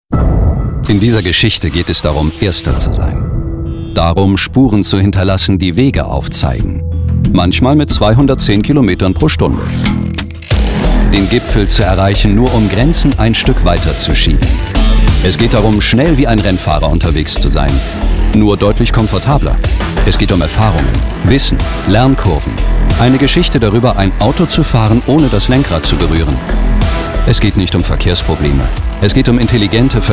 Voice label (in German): Werbung Messefilm Navigation